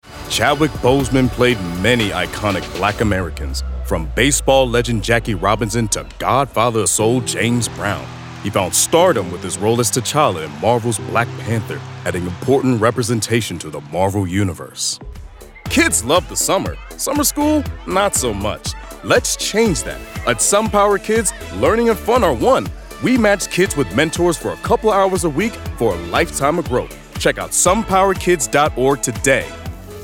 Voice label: VO Narration